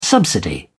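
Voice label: "subsidy", British English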